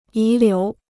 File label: "遗留", Chinese